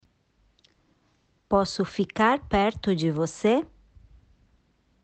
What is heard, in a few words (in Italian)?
Portoghese